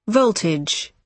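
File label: "voltage", British English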